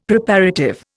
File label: "Preparative", Indian; Dictionary